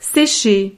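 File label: "secher", French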